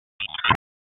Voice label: Police Tac, p25; Raleigh; Northwest District Tac 1; wake